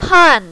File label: "pan4", mandarin, female